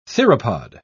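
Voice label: "theropod", British English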